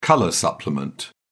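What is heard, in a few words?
"colour supplement", British English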